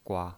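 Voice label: "gua", Mandarin Chinese, neutral tone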